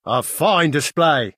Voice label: Bosmer male good game